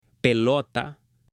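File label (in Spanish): "pelota", Spanish, male; JUGUETES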